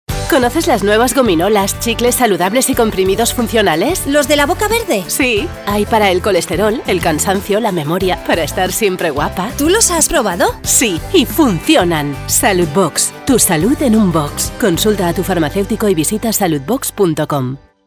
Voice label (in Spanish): cuña generica castellano; SALUDBOX